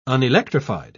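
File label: "unelectrified", British English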